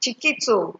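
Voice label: "chikito", Nigerian Pidgin, female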